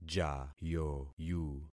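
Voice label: "jayoyu", concatenated syllables; Affixing experiment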